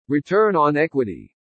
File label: "return on equity", english